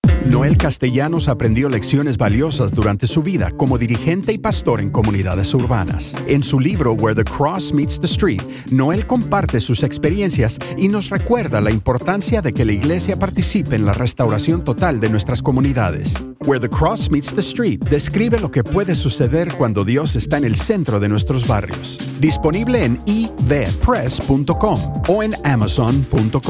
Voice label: Sample Spanish VO